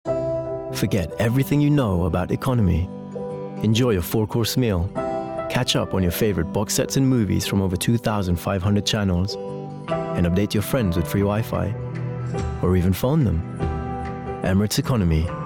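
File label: commercial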